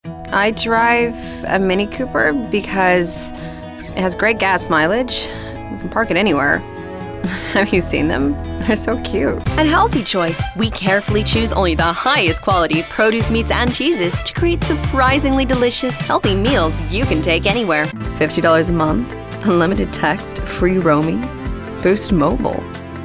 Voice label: Commercial